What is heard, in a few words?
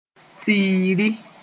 "tiiri", Moore; beforehand